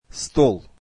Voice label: table, russian; طاولة: стол [stol]

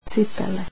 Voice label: shoes, croatian

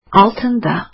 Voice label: "under", azeri

